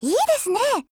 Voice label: APPRECIATE, JP; 56typeR